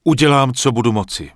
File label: Human Male Merchant Start Shopping; wowpreklad; czwow; NPC